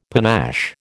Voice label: "panache", American